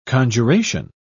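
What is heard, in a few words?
"conjuration", British English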